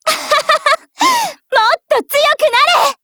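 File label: combine, Japanese; NEGEV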